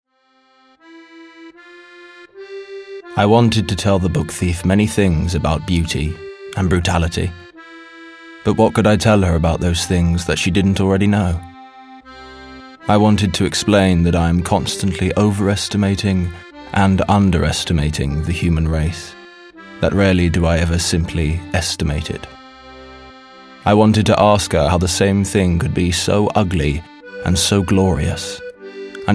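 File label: English UK, narrative; GoLocalise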